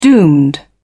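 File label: "doomed", British English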